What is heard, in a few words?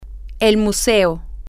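the museum, Spanish, female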